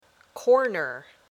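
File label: "corner", English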